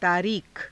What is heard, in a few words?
"taariikha", hindi; तारीख; [女]日付